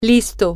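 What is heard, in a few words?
ready, Spanish, female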